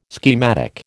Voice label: "schematic", American

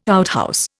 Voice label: "outhouse", Indian

